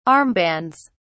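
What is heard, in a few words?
"armbands", British English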